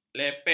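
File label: "lepek", Malay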